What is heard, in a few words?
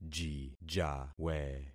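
"jijawe", concatenated syllables; Affixing experiment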